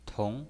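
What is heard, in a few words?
"tong", pinyin voice, second tone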